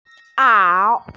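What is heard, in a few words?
"ao3", Mandarin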